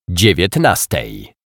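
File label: "dziewietnastej", Polish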